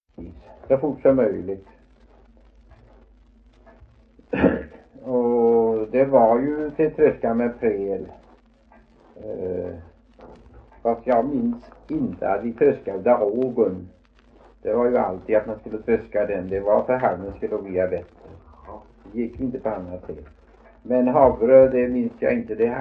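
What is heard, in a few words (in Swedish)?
Veddige Halland, Svenska sagor dialekter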